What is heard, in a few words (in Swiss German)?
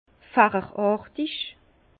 "facherartig", Bas Rhin; vin; en éventail